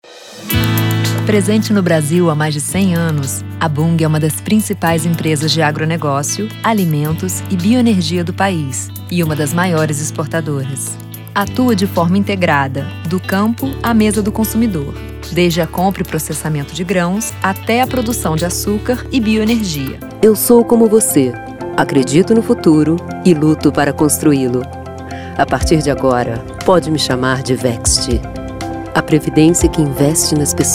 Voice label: Repertório INSTITUCIONAL

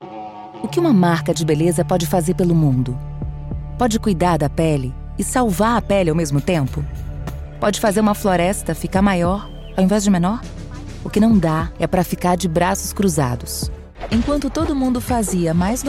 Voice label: Repertório Completo Locução Locutora

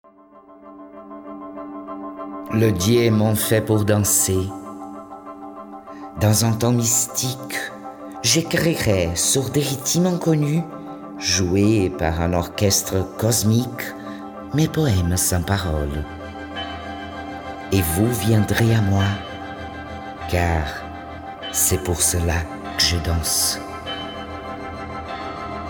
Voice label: locs frances

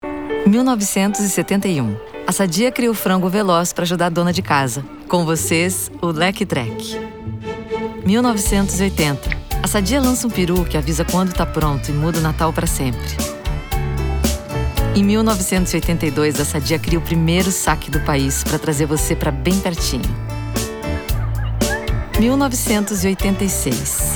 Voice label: NATURAL CONVERSADO

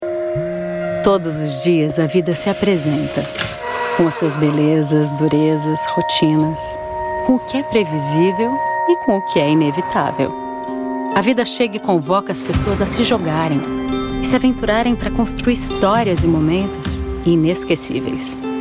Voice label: Institucional Coorporativa

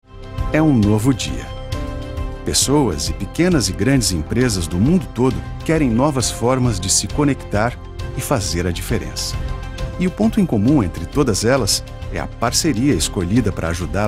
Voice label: Repertório Institucional Corporativo, locutores

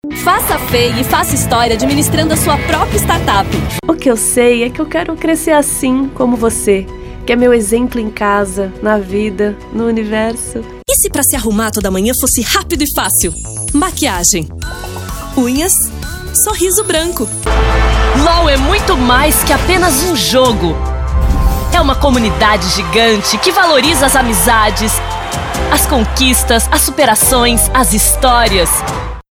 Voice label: locucao jovem

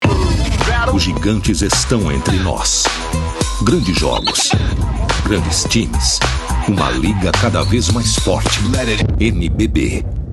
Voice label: ESPORTE : CHAMADAS

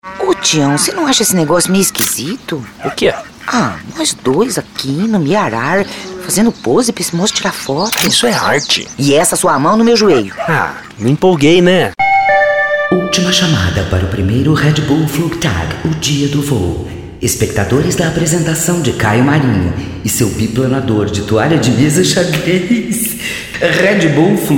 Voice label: CARICATA

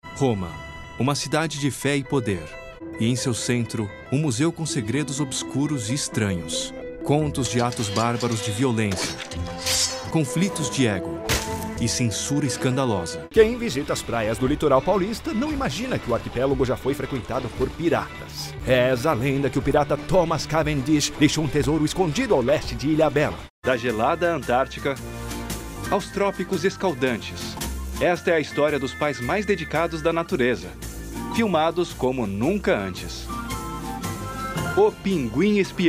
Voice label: repertório narração

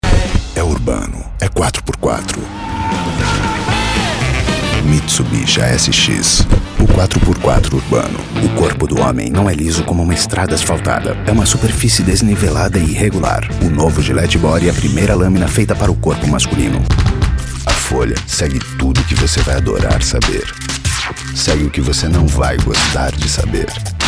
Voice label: Repertório GRAVES

